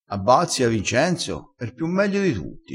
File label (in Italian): "piu meglio", vocabolario dialettale